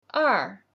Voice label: mandarin

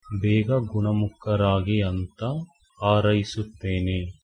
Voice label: get well soon, kannada

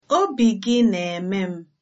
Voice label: i miss you, igbo

